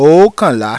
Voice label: "Ookanla", Yoruba